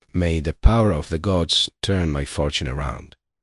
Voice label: upset; fertig classic games; balam